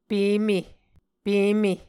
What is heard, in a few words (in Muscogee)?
"peme", Muscogee